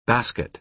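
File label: "basket", American English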